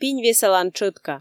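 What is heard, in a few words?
"пинь весалан тшӧтка", Komi, female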